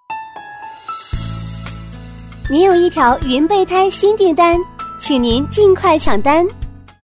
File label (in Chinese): 请您抢单 语音提示